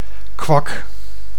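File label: "kwak", Dutch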